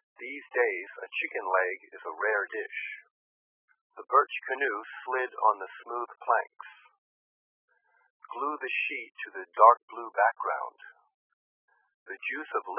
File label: male, shifting